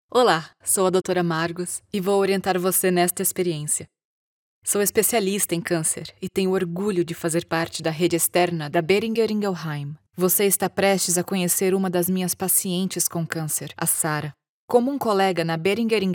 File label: medical pharma virtual reality empathetic professional; BOEHRINGER INGELHEIM; female